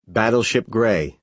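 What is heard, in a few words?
"battleship grey", American English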